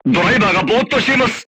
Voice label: voice message driver contused, crew tanks japanese